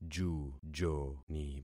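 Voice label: "jujoni", concatenated syllables; Affixing experiment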